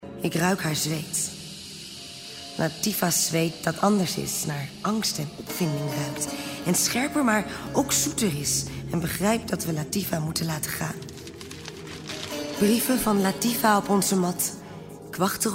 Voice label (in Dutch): ned